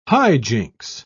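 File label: "hijinks", British English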